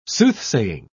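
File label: "soothsaying", British English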